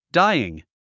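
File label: "dying", English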